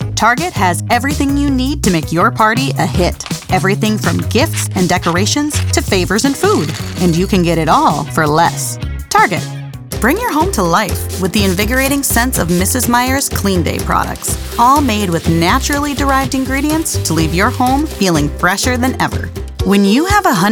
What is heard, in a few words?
Voice Over Commercial